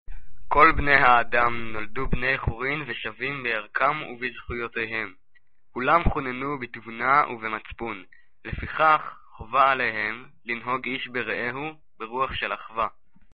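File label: Hebrew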